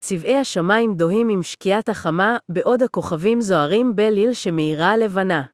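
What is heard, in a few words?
Hebrew, Israel; iOS 26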